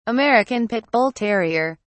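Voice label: "american pit bull terrier", English, British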